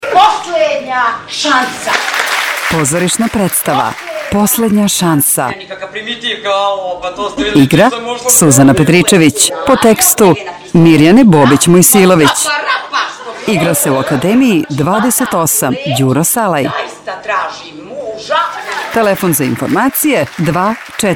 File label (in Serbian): radio spot